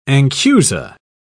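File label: "anchusa", English, British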